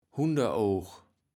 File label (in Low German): "Hunderoog", kaevels platt